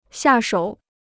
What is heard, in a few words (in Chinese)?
"下手", Chinese